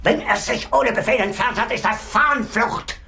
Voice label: chat

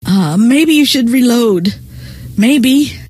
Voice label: reload, scientist female

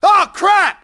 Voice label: "hostiles", grunt; nimble grunt

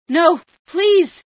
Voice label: no please, female

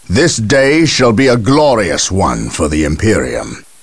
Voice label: chat; gabriel angelos

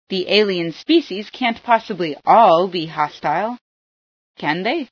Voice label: not hostile, female sci

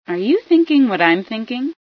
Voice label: are you think, female

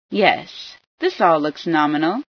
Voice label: all nominal, female

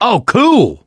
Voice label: cheer; l4d2; ellis

